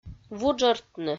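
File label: "вуджӧртны", Komi, female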